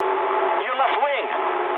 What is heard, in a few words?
eng left wing mood med, speech eng; War Thunder